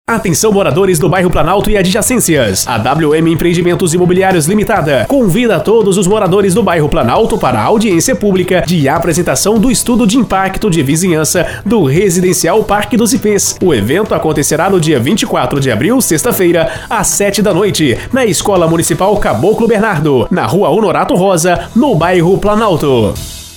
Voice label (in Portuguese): Chamada Radio